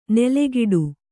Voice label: "ನೆಲೆಗಿಡು", kannada

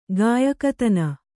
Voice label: "ಗಾಯಕತನ", kannada

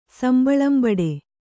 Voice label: "ಸಂಬಳಂಬಡೆ", Kannada

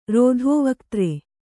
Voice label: "ರೋಧೋವಕ್ತ್ರೆ", Kannada

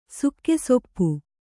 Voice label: "ಸುಕ್ಕೆಸೊಪ್ಪು", Kannada

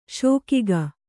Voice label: "ಶೋಕಿಗ", Kannada

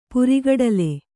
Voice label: "ಪುರಿಗಡಲೆ", Kannada

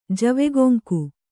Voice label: "ಜವೆಗೊಂಕು", Kannada

